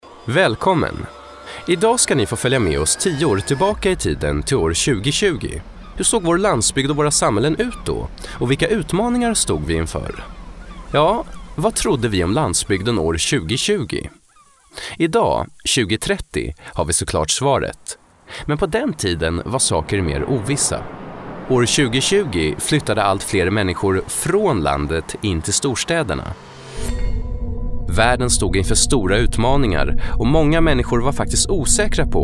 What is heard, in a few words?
swedish narration